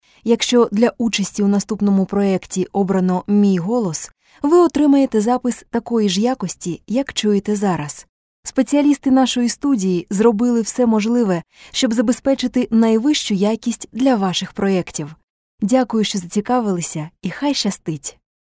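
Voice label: ukrainian voiceover locutora ucraniana, Ukrainian female voice over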